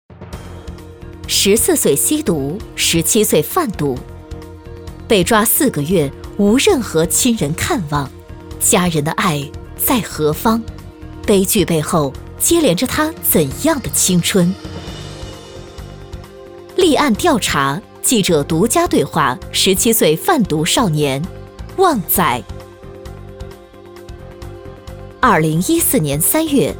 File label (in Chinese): 女 新闻 正式; 贩毒少年